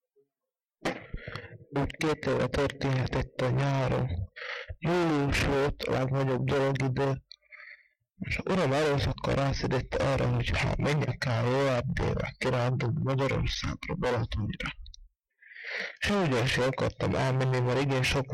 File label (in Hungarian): nyelvjaras gyujtesek